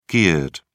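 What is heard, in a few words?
"geared", British English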